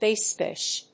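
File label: "Base pitch", English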